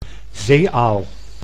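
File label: "zeeaal", Dutch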